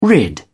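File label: "rid", British English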